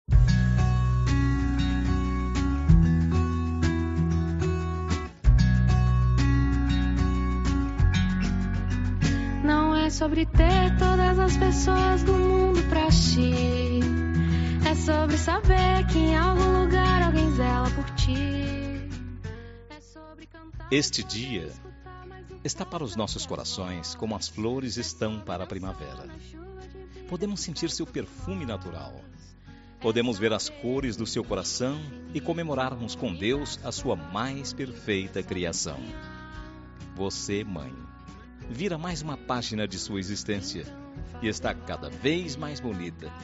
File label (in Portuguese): ANIVER MÃE FEM; Telemensagem Aniversário de Mãe